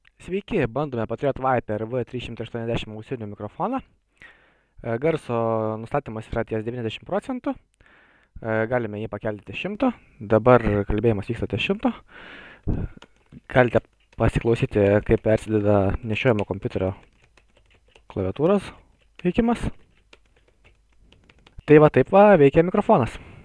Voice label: mic; patriot viper v380